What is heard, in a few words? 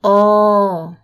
oor, Thai